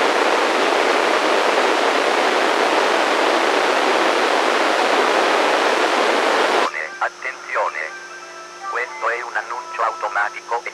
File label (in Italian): Annuncio, pmr446